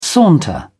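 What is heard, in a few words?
"saunter", English, British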